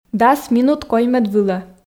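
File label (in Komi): "дас минут коймӧд вылӧ", Komi, female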